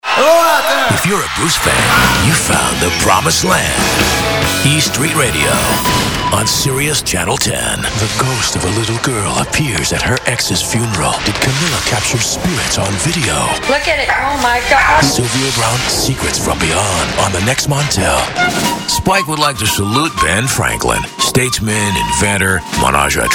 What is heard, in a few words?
promos, men